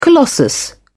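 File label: "colossus", British English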